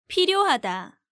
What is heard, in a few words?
"Pilyohada", Korean